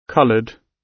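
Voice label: "coloured", British English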